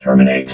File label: "terminate", robot